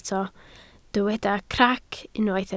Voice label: "crac", Welsh

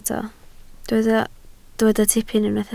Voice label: "tipyn", Welsh

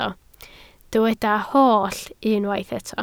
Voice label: "holl", Welsh

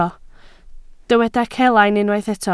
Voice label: "celain", Welsh

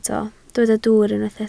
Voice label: "dwwr", Welsh

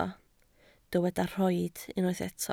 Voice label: "rhoid", Welsh

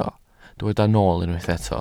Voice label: "nool", Welsh

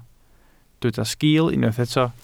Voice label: "sgiil", Welsh

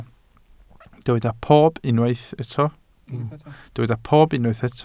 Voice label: "pob", Welsh

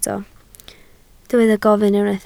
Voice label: "gofyn", Welsh

